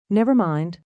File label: Never Mind, female; Talking; VoiceBox